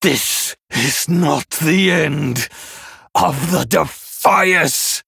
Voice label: Male Human Victory; Edwin; Scabbs